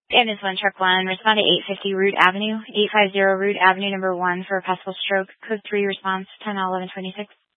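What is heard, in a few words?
Fire Dispatch, Scanner audio recording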